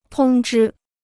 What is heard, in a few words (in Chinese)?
"通知", Chinese